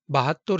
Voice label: Male